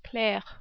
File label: "Claire", French